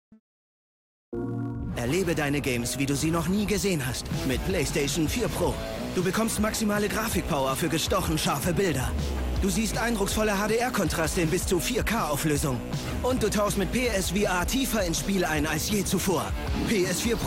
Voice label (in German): tvspot; playstation4pro